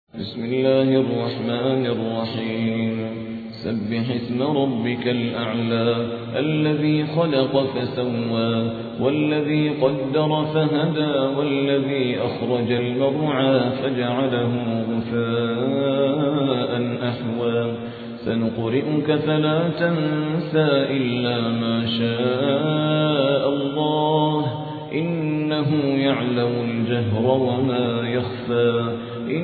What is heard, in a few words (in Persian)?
ترتیل; عادل ریان; سوره اعلی